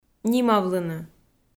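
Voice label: "нимавлыны", Komi-Zyrian, female; kpv audio dict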